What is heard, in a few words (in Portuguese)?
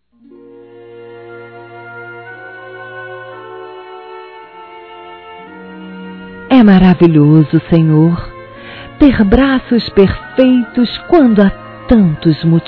Telemensagens, Aniversários, Fem; ORAÇÃO DE AÇÃO DE GRAÇAS; Telemensagens 2017; ANIV. Evangelico